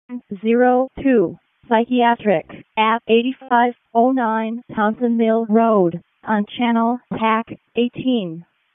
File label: EMS ALERT, p25; wake p25